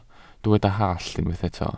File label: "hallt", Welsh